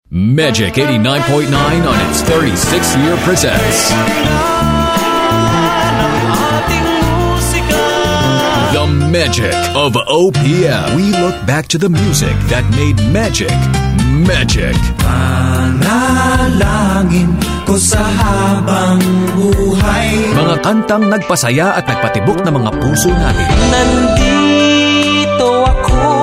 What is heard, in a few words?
CLASSICAL ANNOUNCER, FILIPINO Tagalog Voiceover; MAGIC OF OPM VER 1